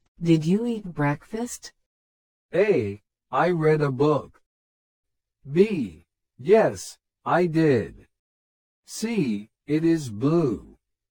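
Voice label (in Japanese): スロー, リスニング; You will hear a question or statement and three responses spoken in English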